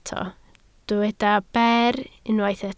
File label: "ber", Welsh